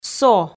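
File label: "со", Komi, female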